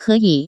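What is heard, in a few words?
"keyi", Taiwan Mandarin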